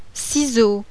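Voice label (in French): "CISEAU", French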